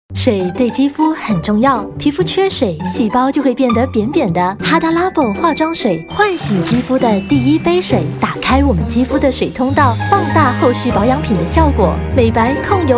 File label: VO Sample Young Sexy